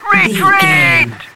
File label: radio voice male soldier retreat